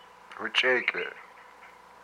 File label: "my guts", hupa